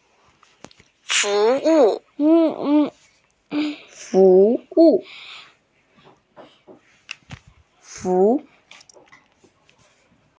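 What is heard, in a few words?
"fu2wu4", female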